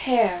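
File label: "pair", French; Virtual Casino: Secret Fantasies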